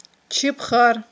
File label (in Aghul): "чибхар", aghul